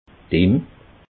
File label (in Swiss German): "imm", alsace